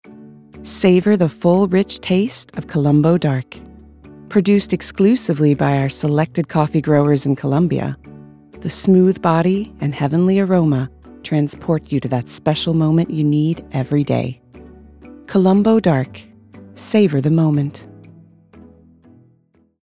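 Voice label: US English commercial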